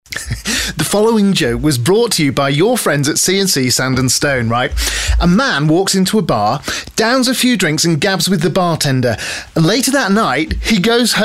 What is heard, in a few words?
Commercial